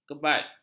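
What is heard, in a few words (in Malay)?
"kebat", Malay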